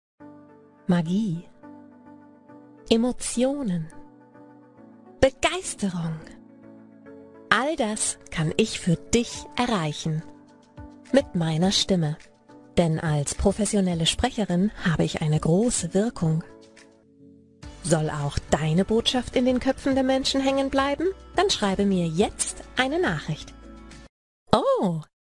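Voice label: German, female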